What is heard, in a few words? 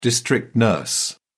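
"district nurse", British English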